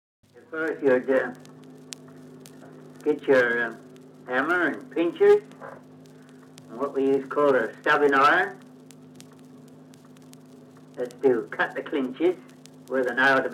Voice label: kent, accents; farningham